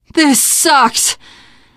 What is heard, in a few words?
reaction negative, teen girl; voice; source engine; left 4 dead 2; survivor